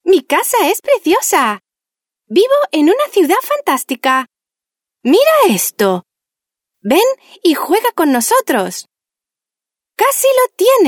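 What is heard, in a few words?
Spanish female children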